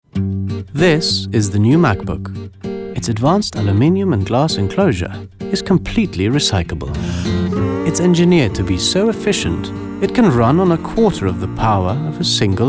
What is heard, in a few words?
male, English with slight European accent; german and turkish